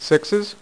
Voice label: "SIXES", Dealer's Speech; PowerPOKER Demo

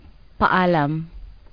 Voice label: GOODBYE, PILIPINO

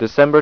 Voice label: "december", speech; Informer 1.0; InformerSpeak

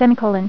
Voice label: "Semicolon", female voice; TalkingKeys

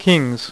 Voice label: "KINGS", poker; iPoker 2000

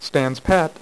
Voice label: "stands pat", dealer's speech; PowerPOKER Demo

